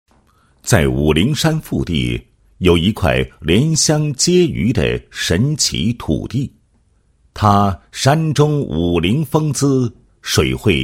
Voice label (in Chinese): 男 旅游 旅游介绍; 灵山福地仙佛寺